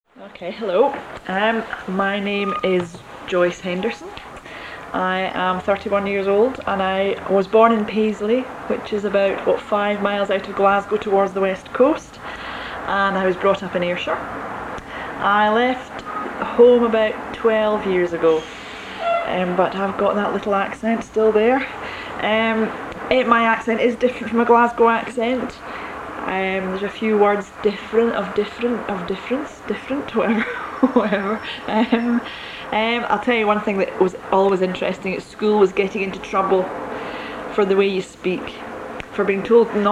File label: Scottish Dialect